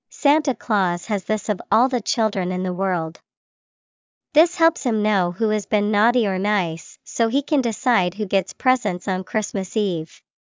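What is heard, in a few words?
santas list, English vocabulary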